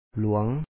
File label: "lua4N" (ຫລວງ, Lao